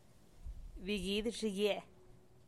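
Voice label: "bigidyxigie", Teotitlán del Valle Zapotec; mariposas zapotecas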